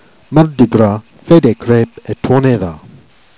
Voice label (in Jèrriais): "mardi gras", Jèrriais; diton